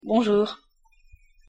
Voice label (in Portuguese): pronuncia frances bom dia